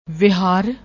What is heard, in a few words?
"vihar", Punjabi